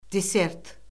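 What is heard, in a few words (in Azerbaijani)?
"desert", Azerbaijani; Orfoqrafiya Lüğəti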